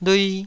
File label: "lui2", Taiwanese syllable